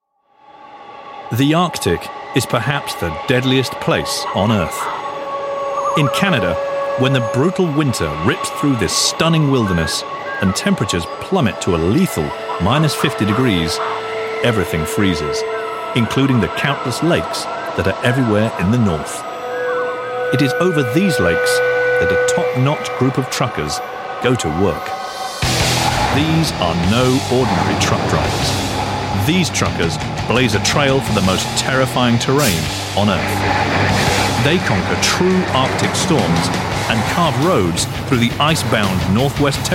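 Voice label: documentary, Neutral British Accent Voice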